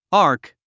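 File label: "arc", English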